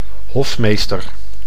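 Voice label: "hofmeester", Dutch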